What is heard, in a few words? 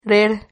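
"rer", Spanish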